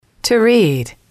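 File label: "to read", English, female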